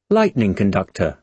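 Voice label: "lightning conductor", British English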